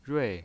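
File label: "rui", Mandarin Chinese pinyin voice, fourth tone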